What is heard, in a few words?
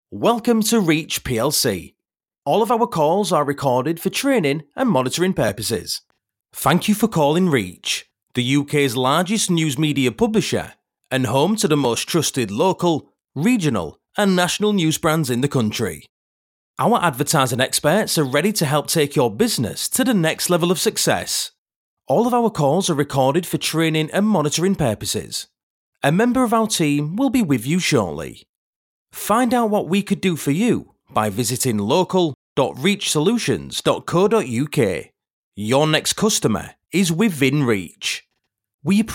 yorkshire telephone ivr